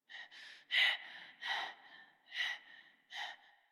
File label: Operator Voice; Warframe; Chimera; en